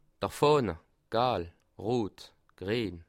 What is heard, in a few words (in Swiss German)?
"fahne", alsace